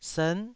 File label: "sern9", taiwanese syllable